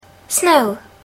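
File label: "snow", English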